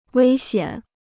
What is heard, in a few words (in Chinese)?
"危险", Chinese